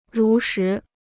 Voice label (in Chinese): "如实", Chinese